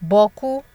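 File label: "borku", Nigerian Pidgin, female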